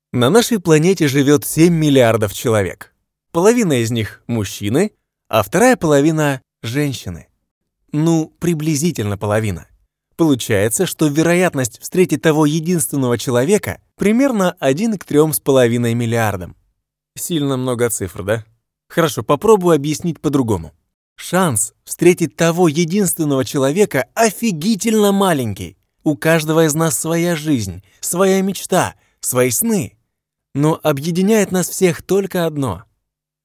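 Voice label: Russian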